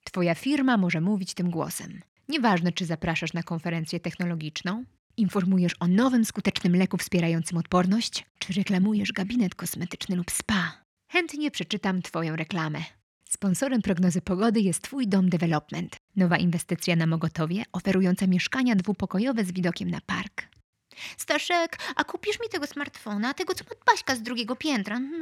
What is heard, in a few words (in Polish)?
reklamowe